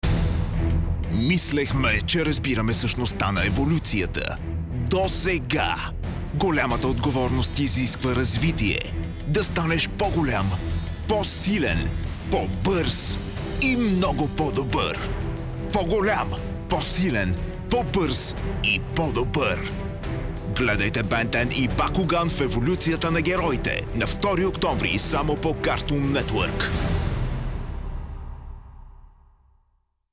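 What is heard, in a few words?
Bulgarian male voice over trailer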